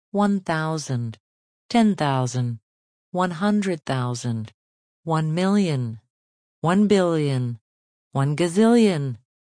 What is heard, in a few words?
Female voice, counting numbers from 1000-1 gazillion, speaking; (human voice); Talking; Voice Box